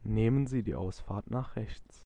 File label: Exit Right, German, male